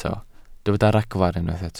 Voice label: "ragfarn", Welsh

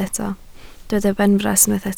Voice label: "benfras", Welsh

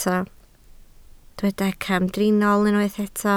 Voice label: "camdriniol", Welsh

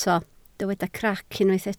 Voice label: "crac", Welsh

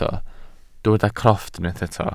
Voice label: "crofft", welsh

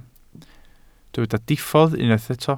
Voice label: "diffodd", Welsh

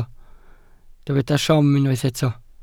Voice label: "siom", Welsh

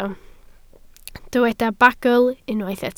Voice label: "bagl", Welsh

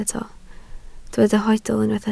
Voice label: "hoedl", Welsh

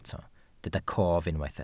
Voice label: "cof", Welsh

